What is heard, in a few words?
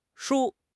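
"shu1", Chinese; HSK4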